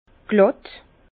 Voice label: "glatt", Bas Rhin; vin; plat

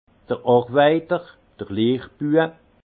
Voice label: "arwajter", alsace; vin; compagnon apprenti

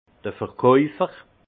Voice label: "verkaufer", alsace; vin; vendeur

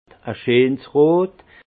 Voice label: "scheensrot", alsace; vin; rouge franc

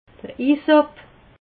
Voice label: "isop", alsace

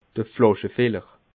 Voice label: "flaschefiller", alsace

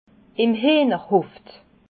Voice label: "hiehnerhof", Alsace

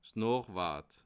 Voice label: "noochwort", alsace

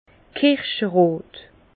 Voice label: "kirscherot", Bas Rhin; vin; cerise

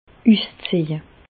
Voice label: "uszeje", Bas Rhin; medecine; déshabiller

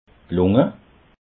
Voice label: "lunge", alsace

